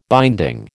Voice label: "binding", American